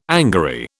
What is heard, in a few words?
"angary", British